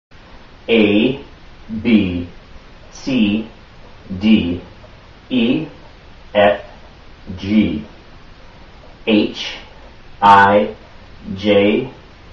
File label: english alphabet american man